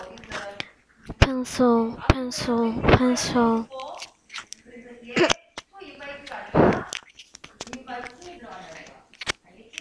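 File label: "pencil", spoken word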